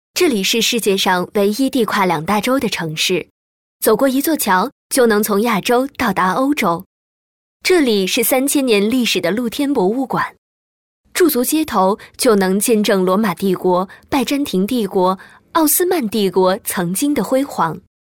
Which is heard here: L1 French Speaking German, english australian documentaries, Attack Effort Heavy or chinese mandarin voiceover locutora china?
chinese mandarin voiceover locutora china